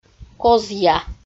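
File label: "козъя", Komi, female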